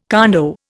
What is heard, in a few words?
"condo", Indian